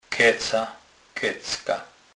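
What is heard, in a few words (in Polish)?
"keca", Kurpie dialect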